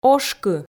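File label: "ошкы", Komi, female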